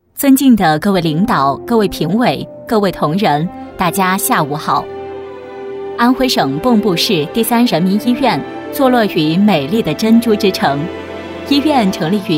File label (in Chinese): 女 专题